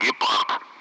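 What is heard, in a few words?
Speech, Russian; Allied Flight; AirDefence; Gepard; DCS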